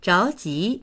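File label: "zhao2ji2", Mandarin Chinese; 着急; 焦る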